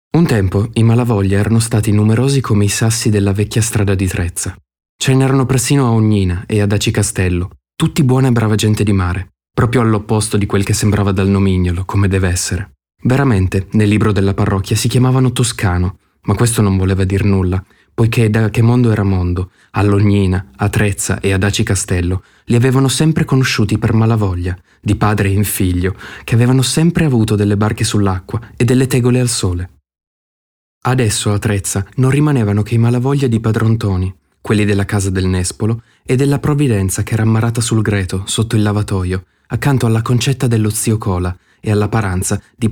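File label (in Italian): Descrittivo, voci maschili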